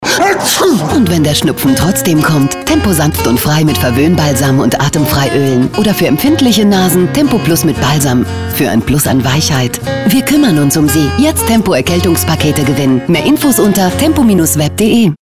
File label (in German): Werbung; Tempo